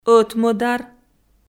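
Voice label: "ӧтмӧдар" Komi, female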